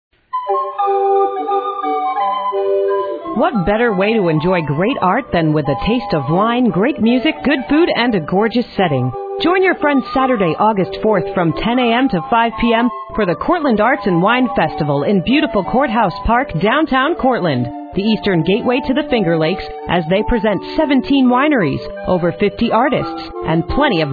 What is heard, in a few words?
radio spot; Radio Announcement